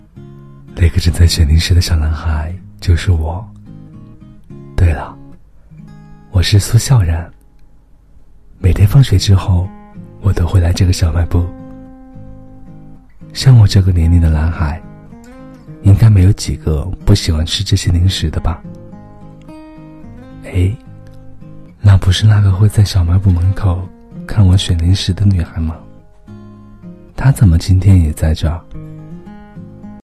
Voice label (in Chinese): 微电影旁白男孩自述